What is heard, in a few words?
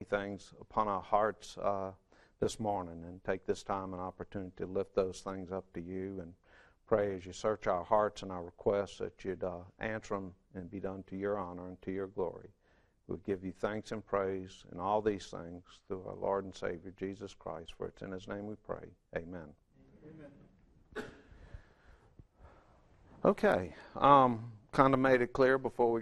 English